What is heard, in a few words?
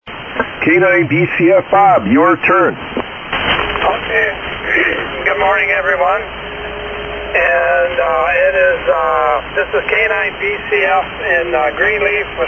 Kenwood TS-520S & Hand Mic; Greenleaf, Wi.